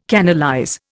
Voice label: "canalize", Indian

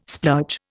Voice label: "splurge", Indian

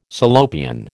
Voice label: "Salopian", American

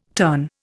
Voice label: "terne", Indian

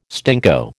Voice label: "stinko", American

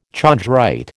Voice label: "chondrite", American

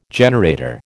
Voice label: "generator", American

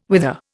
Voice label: "whither", Indian

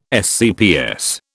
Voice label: "SCPS", British

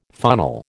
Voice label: "funnel", American

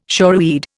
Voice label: "shoreweed", Indian

